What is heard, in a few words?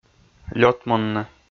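"лётмунны", Komi, male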